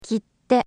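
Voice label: "kitte", Japanese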